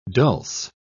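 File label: "dulse", British English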